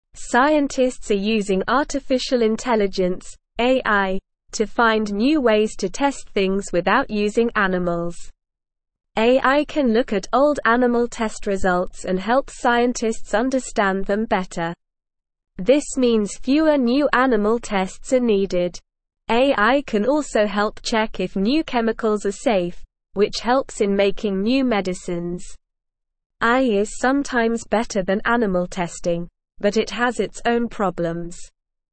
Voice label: English SLOW Reading; Smart Computers Help Scientists Stop Animal Testing